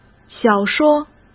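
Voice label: "小说", Mandarin Chinese; chinese-flashcards; hsk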